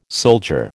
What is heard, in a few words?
"soldier", American